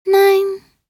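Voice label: voc en hour, English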